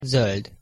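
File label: "zold", Hungarian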